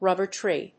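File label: "rubber tree", English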